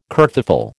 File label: "kerfuffle", American